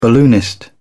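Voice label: "balloonist", British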